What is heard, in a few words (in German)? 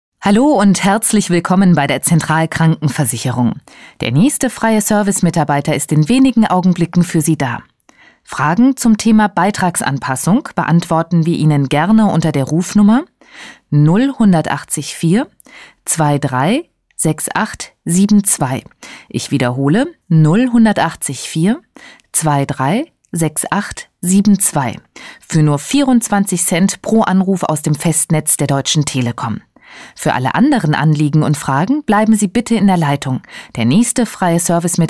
Telefonansage